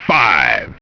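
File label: "five", English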